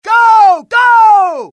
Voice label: suppress, dialogue, US; mohaa

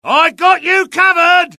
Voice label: suppress, dialogue UK; mohaa

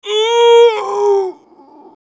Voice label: death, dialogue; mohaa

